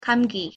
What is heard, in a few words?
"감기", Korean